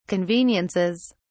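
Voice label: "conveniences", British English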